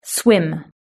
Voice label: "swim", british english

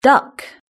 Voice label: "duck", british english

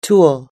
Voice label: "tool", us english

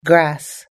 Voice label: "grass", us english

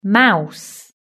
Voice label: "mouse", british english

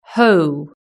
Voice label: "hoe", british english

